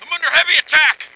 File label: On Fire under attack, Male Voice; utdemo